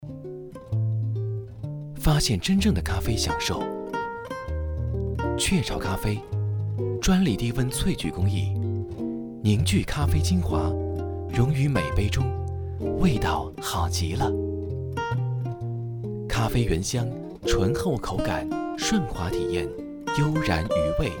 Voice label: 广告 咖啡 温馨浪漫; 雀巢

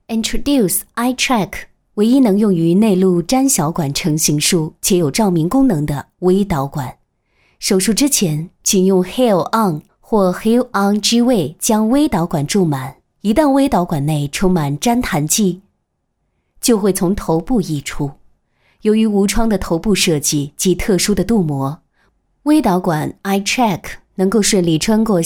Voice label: 女-解说-正统产品介绍带部分英文

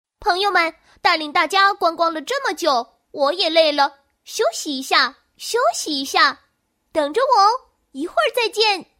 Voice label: 女 男童; 休息一下

